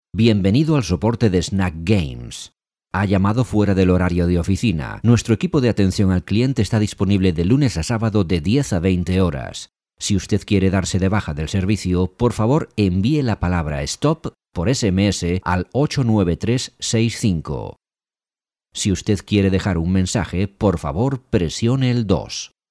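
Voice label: on hold IVR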